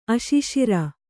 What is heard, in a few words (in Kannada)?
"ಅಶಿಶಿರ", Kannada